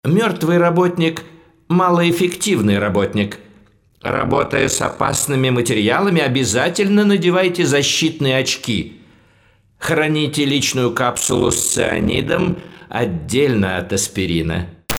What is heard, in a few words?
online narrator; penumbra2